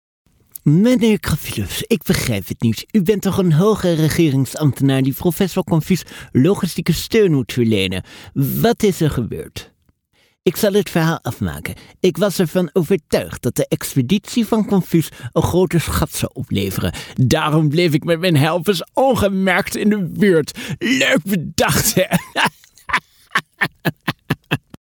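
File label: Dutch, male, character; voice over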